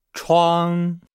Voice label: "chuang", Nihao